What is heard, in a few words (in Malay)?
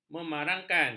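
"memarangkan", Malay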